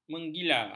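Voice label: "menggila", Malay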